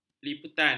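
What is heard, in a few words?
"liputan", Malay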